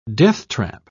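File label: "deathtrap", British English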